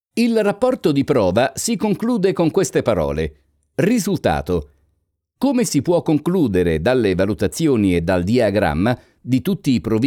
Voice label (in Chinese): 意大利语男 自然叙述